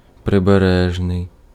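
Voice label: Ukrainian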